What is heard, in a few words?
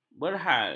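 "berhal", Malay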